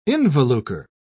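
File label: "involucre", British English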